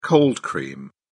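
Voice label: "cold cream", British English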